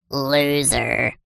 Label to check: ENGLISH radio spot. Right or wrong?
Wrong